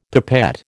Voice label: "pipette", American